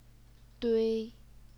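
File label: "dui", first tone, Chinese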